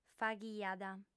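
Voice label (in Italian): "faghiat", lingua sarda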